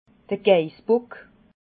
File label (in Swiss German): "geissbock", alsace